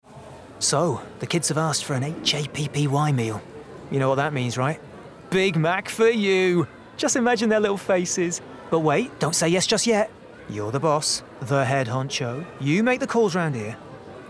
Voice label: Neutral; McDonalds; Commercial Showreel

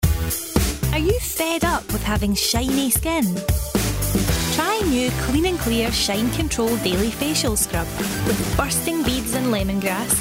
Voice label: Clean Clear; Commercial Showreel

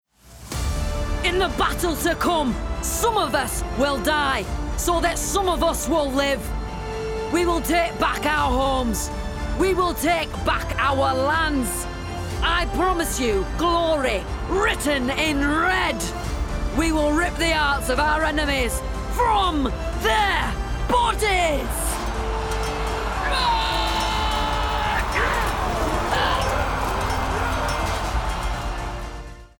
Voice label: Warrior Northern

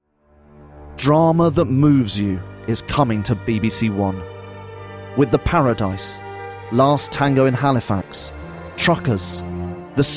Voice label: drama promo; BBC1 Drama – Promo

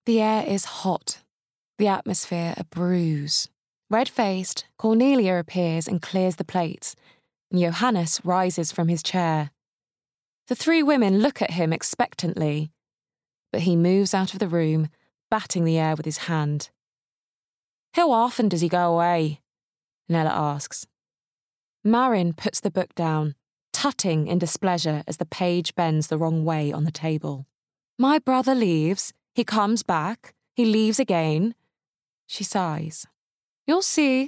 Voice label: Bookread; The Miniaturist by Jessie Burton

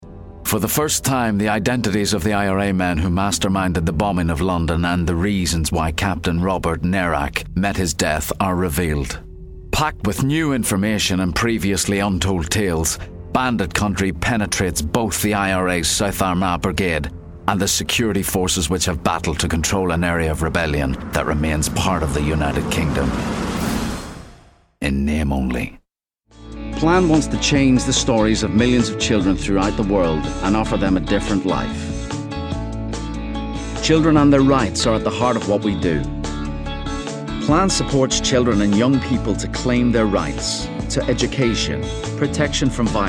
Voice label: Narrative; Documentary Showreel

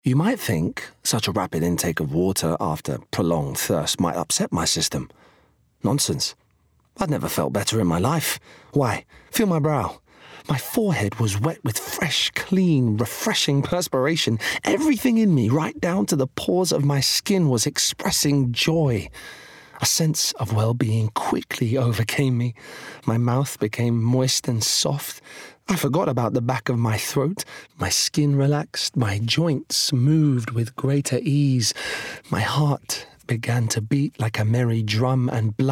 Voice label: Bookread

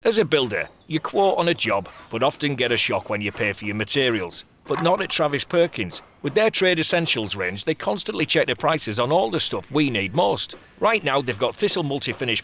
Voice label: shock radio; TRAVIS PERKINS

